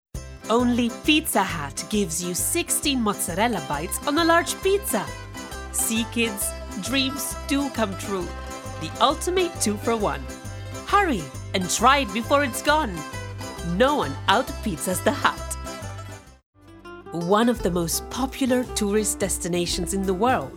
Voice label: English with Italian accent Compilation Commercials